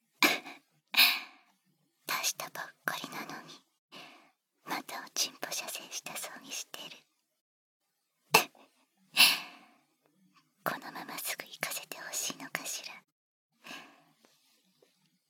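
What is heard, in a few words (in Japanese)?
耳舐めで連続射精（バイノーラルマイク 効果音あり大きめ）, ASMR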